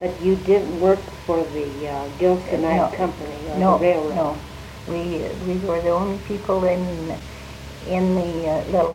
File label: Oral history with 83 year old female Colorado; american english dialect